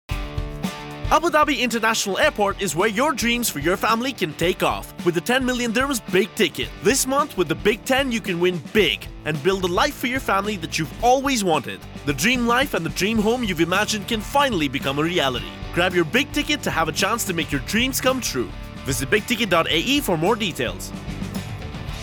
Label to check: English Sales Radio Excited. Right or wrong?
Right